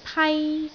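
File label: "pai1", Cantonese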